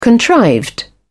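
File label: "contrived", British English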